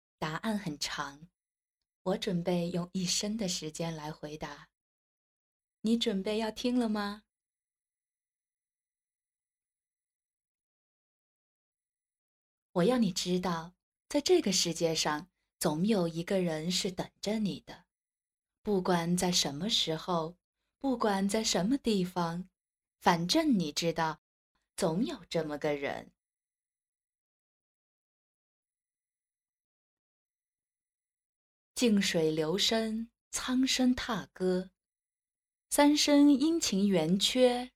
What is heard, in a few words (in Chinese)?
美文情感主播